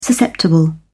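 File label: "susceptible", British English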